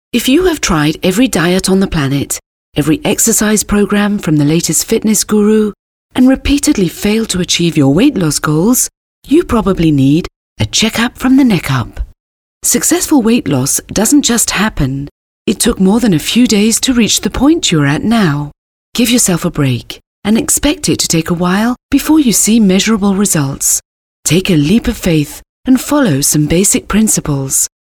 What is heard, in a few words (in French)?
anglais